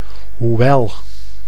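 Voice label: "hoewel", Dutch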